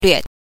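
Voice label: "lüe", Mandarin Chinese pinyin, neutral tone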